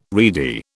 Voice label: "reedy", British

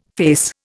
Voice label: "face", Indian

